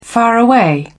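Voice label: "faraway", British English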